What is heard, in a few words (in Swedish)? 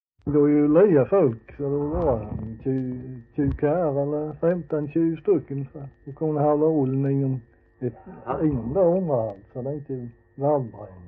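Glimåkra Skåne, Svenska sagor dialekter; Folkminnen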